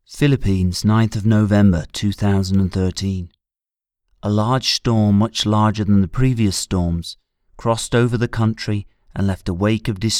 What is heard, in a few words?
Documentary Typhoon Haiyan